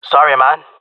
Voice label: sorry, jungle male; player vo; Counter Strike Model Pack; CS:GO

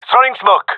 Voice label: throwing smoke, gendarmerie male; vo

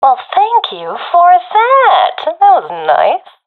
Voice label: thankful, professional fem; Counter Strike Model Pack; CS:GO

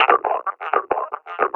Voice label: near panic, officer; combine; Half Life Alyx; Half Life Model Pack

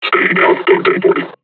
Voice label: taunt, combine charger; Half Life Alyx

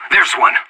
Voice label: radio enemy spotted; anarchist

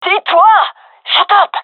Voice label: request quiet, gendermerie fem; vo

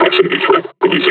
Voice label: near panic; combine charger; Half Life Alyx

